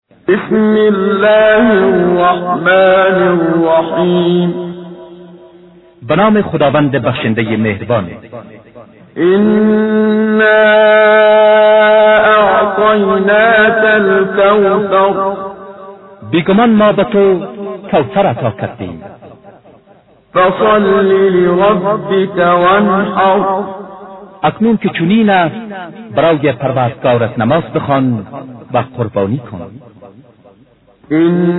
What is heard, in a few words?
Surah Farsi; Menshay Translation